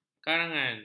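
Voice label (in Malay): "karangan", Malay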